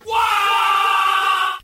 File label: wah distant; ooh ahh